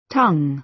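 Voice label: "tongue", American English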